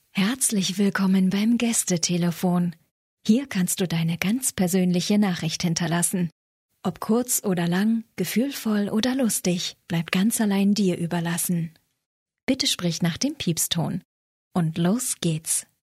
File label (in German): GREETING, Audio Gästebuch und Feedback Telefon